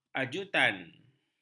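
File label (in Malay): "ajutan", Malay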